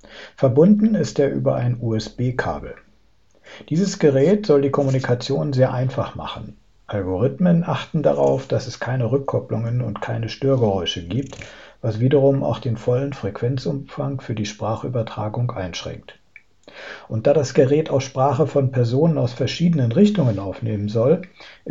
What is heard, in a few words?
Jabra Speak 510 USB